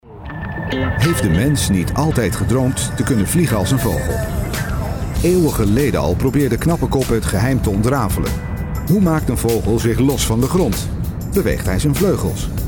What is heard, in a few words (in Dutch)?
Commentaar; Fokker